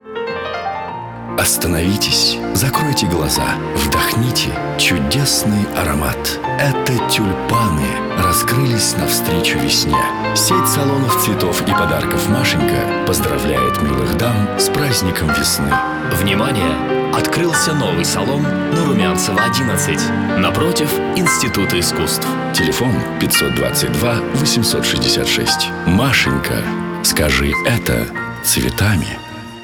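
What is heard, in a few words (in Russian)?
сложный информационный ролик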